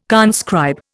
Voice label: "conscribe", Indian